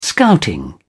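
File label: "scouting", British English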